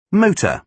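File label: "motor", British English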